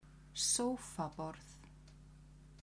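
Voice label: "sofabord", Icelandic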